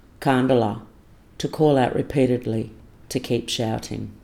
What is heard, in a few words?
"karndela" to call out